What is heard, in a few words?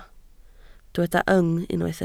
"yng", Welsh